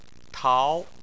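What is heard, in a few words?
"tao", Chinese, second tone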